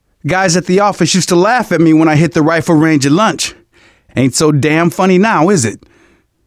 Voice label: generic, manager, survivor, voice; Left 4 Dead 2; left4dead2 dlc2